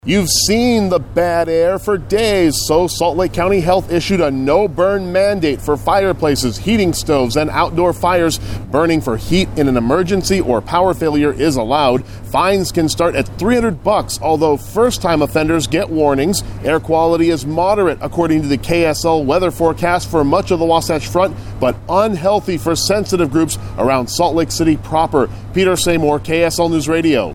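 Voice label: voice public service announcement; BURN BAN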